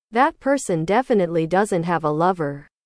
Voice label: english